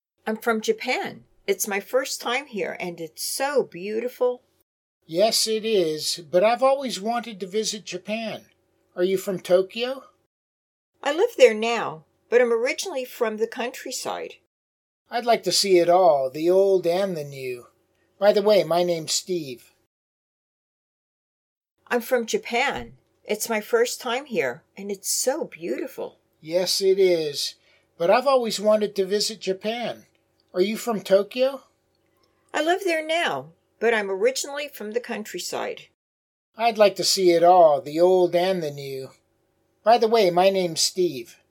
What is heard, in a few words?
Natural Dialog